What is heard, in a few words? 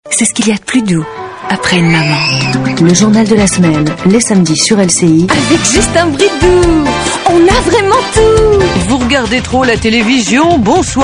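female French mix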